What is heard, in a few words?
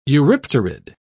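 "eurypterid", British English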